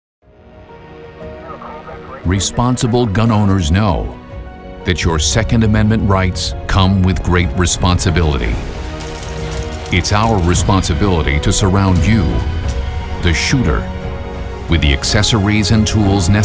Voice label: TV Commercials